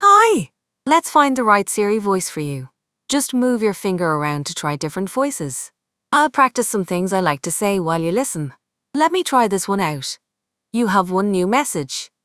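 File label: Irish English; iOS 26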